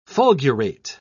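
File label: "fulgurate", British English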